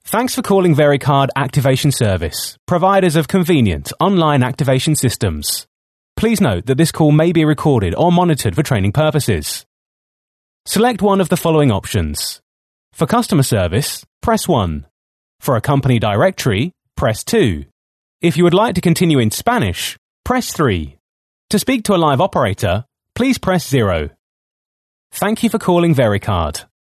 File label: On Hold